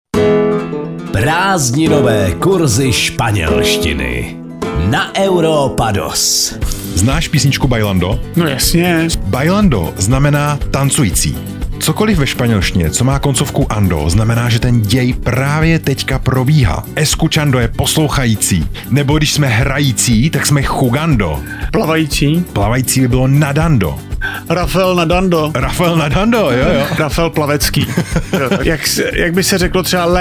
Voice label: spanelstina spanelstina

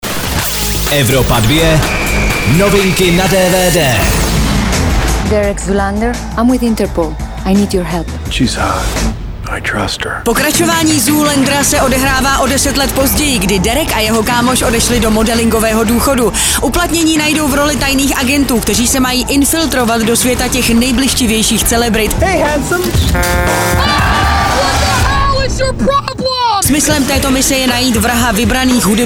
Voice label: DVD NEWS; Zoolander 2

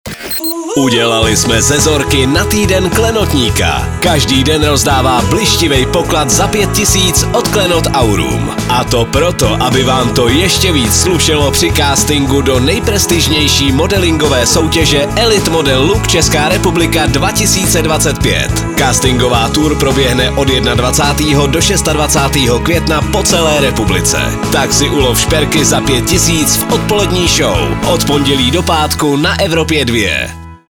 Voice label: promo soutez; Soutěžte s ELITE MODEL LOOK CZECH REPUBLIC 2025; Soutěžte na Evropě 2

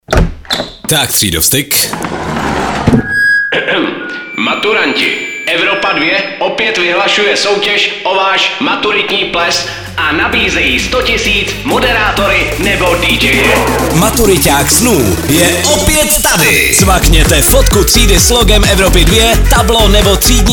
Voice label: promo maturitak; MATURIŤÁK EVROPY 2; Jsi ve čtvrtém ročníku střední školy? Chceš sobě a celé třídě připravit nezapomenutelný maturitní ples?

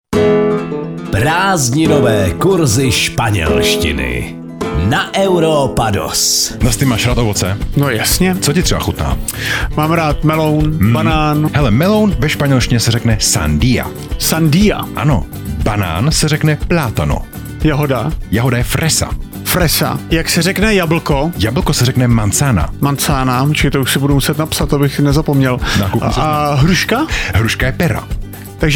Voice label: spanelstina spanelstina

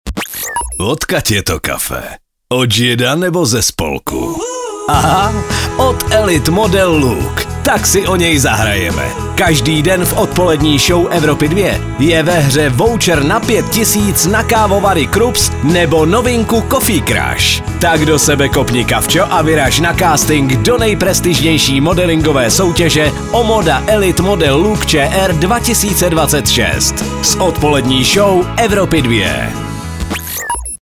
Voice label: liner soutez

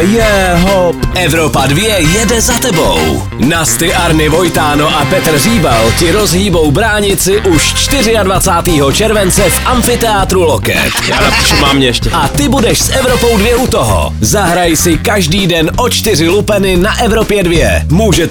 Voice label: promo comedy tour soutez

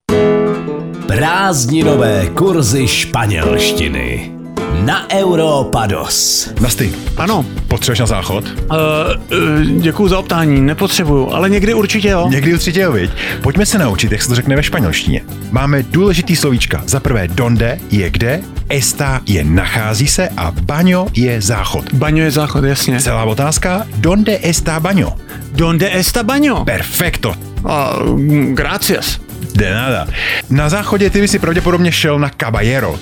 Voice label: spanelstina spanelstina

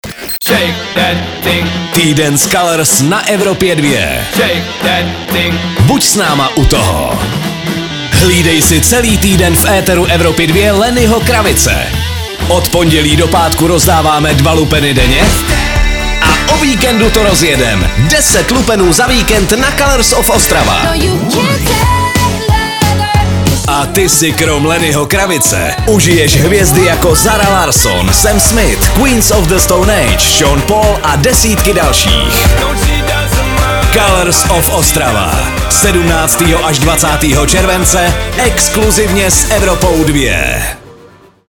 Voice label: promo tyden soutez; Týden s… COLOURS OF OSTRAVA; Na Evropě 2 můžeš soutěžit

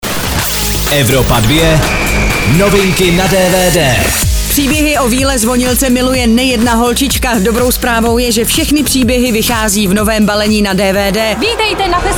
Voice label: dvd news; zvonilka

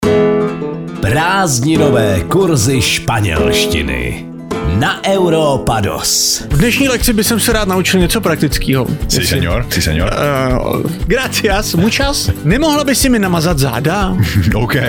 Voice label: spanelstina spanelstina

